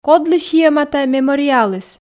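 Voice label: "кодлы сиӧма тайӧ мемориалыс", Komi, female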